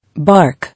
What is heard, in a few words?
"Bark", English